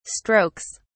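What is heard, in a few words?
"strokes", British English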